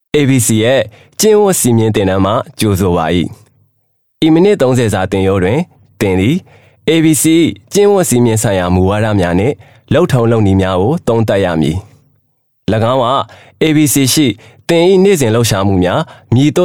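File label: Burmese m E-Learning, male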